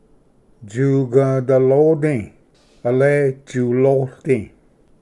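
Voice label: "tsugvdalodi", Cherokee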